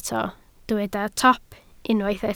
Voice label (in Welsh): "top", Welsh